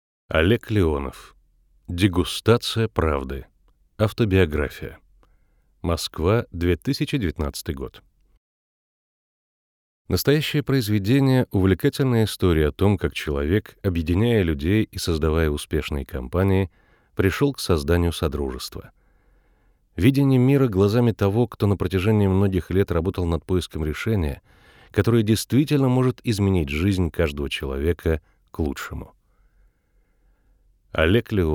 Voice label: male, Russian, narration

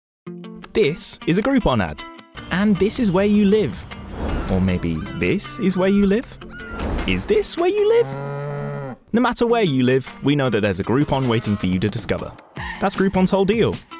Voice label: male english commercial; english and scottish; groupon

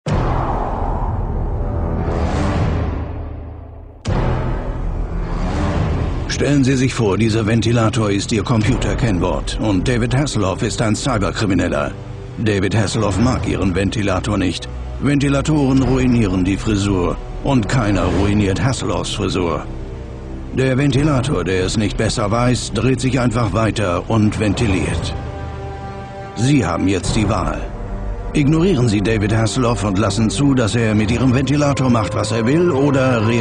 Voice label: male german commercial; norton

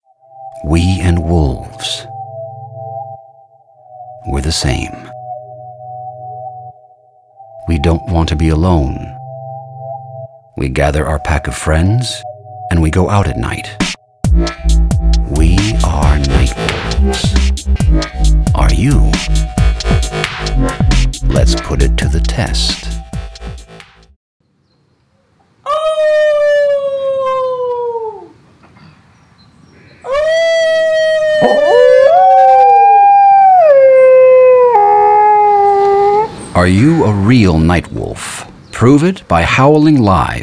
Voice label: male english usa commercial; eristoff

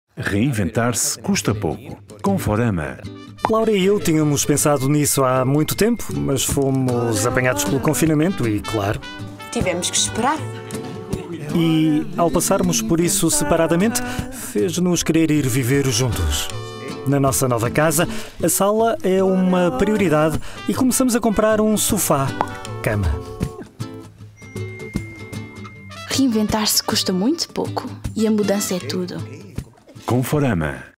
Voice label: male portuguese commercial; portuguese european

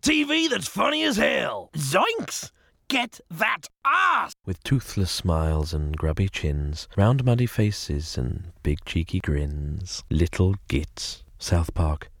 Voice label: male english comedy promo; paramount

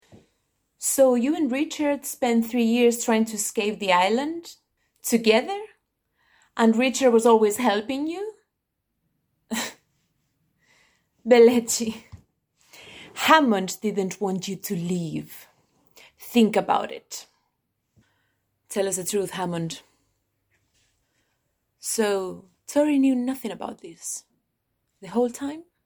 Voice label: female English with Spanish Castilian accent; Devine Voices

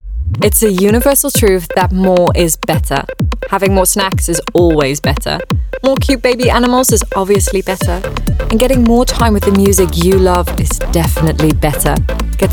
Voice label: female english with soft dutch accent commercial

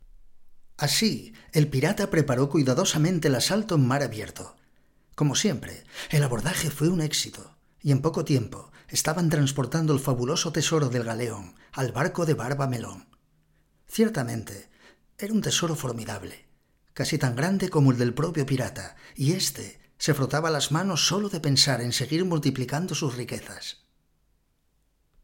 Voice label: male spanish castilian childrens narration; the pirates tale; Devine Voices

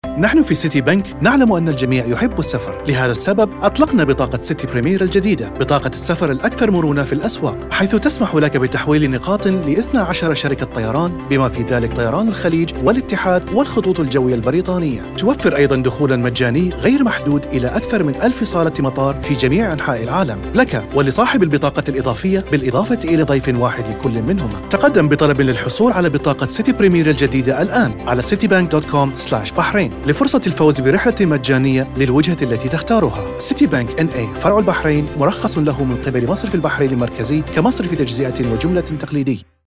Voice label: male, Arabic corporate; citibank

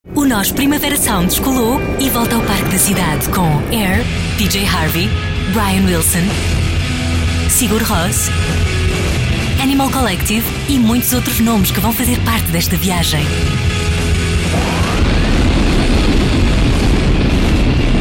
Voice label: female Portuguese commercial

